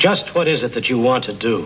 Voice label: "what is it you want to do", male; MALE MISC